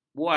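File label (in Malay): "buas", Malay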